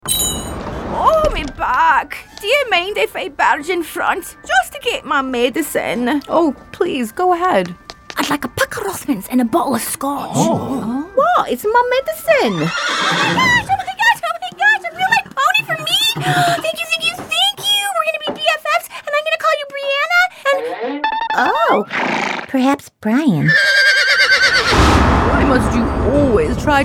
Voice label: character; TaDa